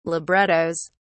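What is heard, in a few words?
"librettos", British English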